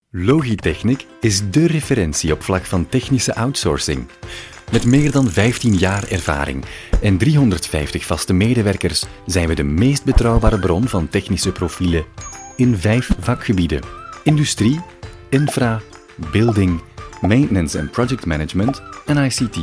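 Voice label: Flemish male Commercial; voice over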